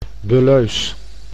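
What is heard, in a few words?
"bulleus", Dutch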